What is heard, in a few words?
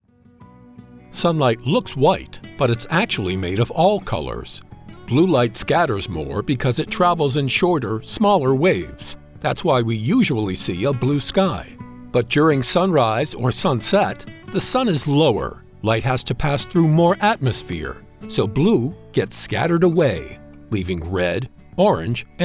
Explainer